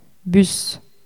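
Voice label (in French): "bus" French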